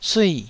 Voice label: "sui4", Taiwanese

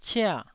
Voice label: "cia4", taiwanese

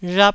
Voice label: "rhap1", taiwanese

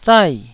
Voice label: "zai4", Taiwanese

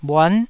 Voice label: "bhuan9", taiwanese

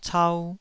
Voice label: "cau2", taiwanese Syllables

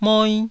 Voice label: "moi1", taiwanese

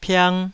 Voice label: "piang2", Taiwanese

